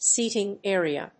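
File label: "seating area", English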